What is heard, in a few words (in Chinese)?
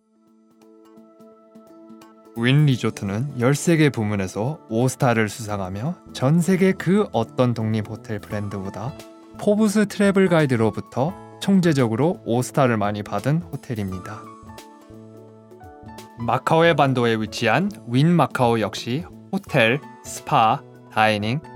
韓語男聲 Hotel